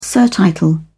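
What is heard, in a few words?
"surtitle", British English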